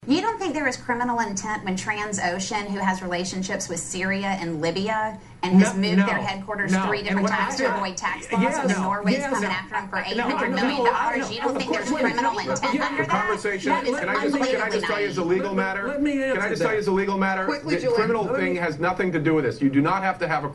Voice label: talking heads talking over one another